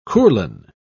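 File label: "courlan", British English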